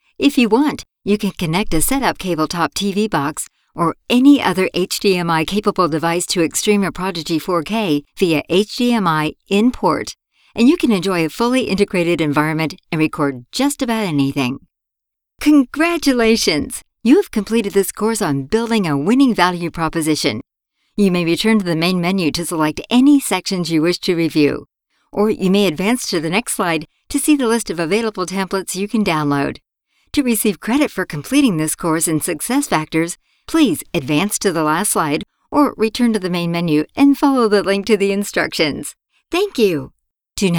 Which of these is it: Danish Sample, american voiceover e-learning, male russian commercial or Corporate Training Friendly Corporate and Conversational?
Corporate Training Friendly Corporate and Conversational